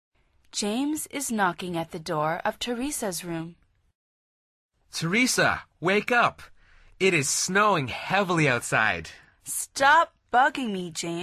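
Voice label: dialog, Conversación en Ingles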